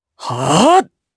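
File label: Vox Casting, Japanese; Lucias; kings raid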